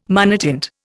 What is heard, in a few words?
"monotint", Indian